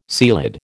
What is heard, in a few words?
"ceilidh", American